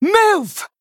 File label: Female Human Attack